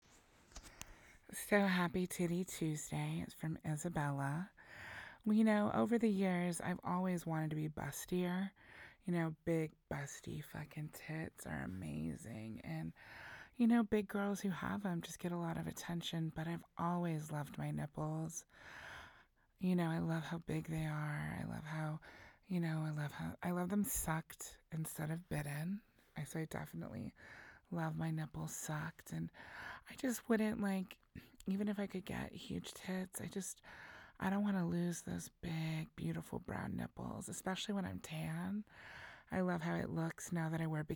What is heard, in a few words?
voice message; Teasing Titty Tuesday; OnlyFans